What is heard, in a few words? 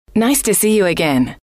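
nice to see you again, female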